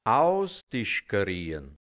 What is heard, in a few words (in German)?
"ausdischkariern", hianzisch Mundart, Burgenland; ausplaudern